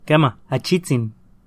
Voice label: yes a little nahuatl; Quema, achitzin; Do you speak Nahuatl?